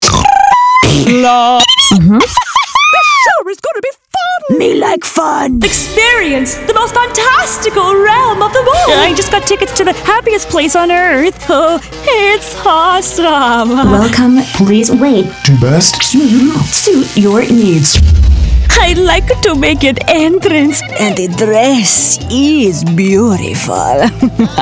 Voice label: female english canadian multiple accents animation compilation